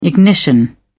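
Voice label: "ignition", English, British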